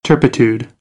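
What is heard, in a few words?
"turpitude", American English; AmericanBritish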